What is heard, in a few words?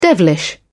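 "devilish", British English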